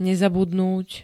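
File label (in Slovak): "nezabudnúť", Slovak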